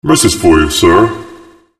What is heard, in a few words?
Robotic Male; Sony Ericsson; ringtones